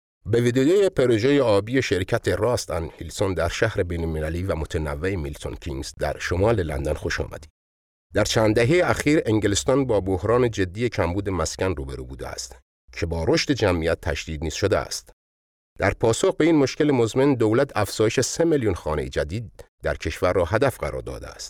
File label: Farsi